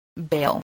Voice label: "bail", english